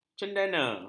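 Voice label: "cendana", Malay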